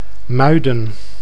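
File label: "Muiden", Dutch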